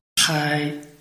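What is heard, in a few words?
"hai", Chinese, tone 1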